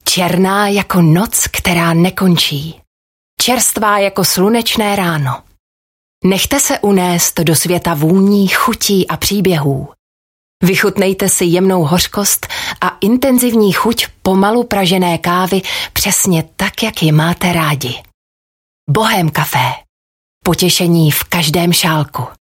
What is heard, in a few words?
Czech Voice Sample